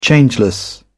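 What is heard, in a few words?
"changeless", British English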